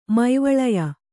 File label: "ಮೈವಳಯ", kannada